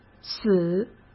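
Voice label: "死", Mandarin Chinese; chinese-flashcards; hsk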